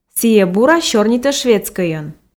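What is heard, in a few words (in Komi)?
сійӧ бура сёрнитӧ шведскӧйӧн, Komi, female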